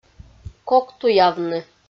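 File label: "коктуявны" Komi, female; audio dict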